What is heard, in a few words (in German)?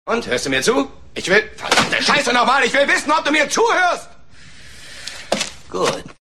hoer zu, german; taunt packs